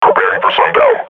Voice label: combat idle, grunt; Half Life Model Pack

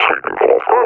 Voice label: reconnoiter start, officer; combine; Half Life Alyx; Half Life Model Pack

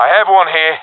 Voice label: sees one enemy, professional epic; vo; Source Model Packs; Counter Strike Model Pack; CS2

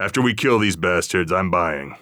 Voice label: radio lock n load

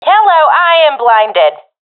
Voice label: blinded, professional fem; vo; Counter Strike Model Pack; CS:GO

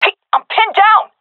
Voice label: pinned, seal fem; vo; Counter Strike Model Pack; CS:GO

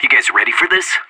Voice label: radio lock n load; anarchist

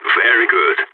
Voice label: nice shot, agents, balkan

